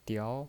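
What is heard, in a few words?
"diao" Mandarin Chinese, second tone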